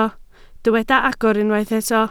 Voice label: "agor", Welsh